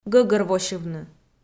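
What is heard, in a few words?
"гӧгӧрвосьывны", Komi, female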